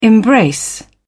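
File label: "embrace", British English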